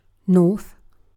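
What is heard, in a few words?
"north", British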